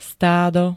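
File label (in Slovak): "stádo", slovenské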